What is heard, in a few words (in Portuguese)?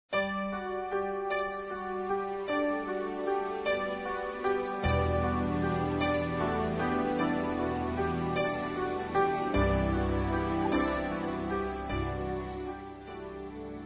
Declaração Masculino, Telemensagens; Telemensagens 2017; Românticas